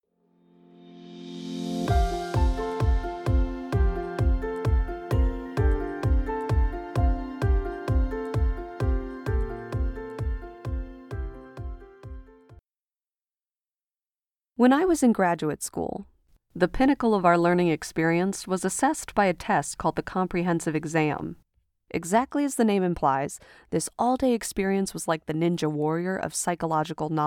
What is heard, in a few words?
Uncomfortable Adult Non-Fiction